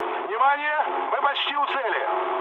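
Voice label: near waypoint, mood med, Russian; War Thunder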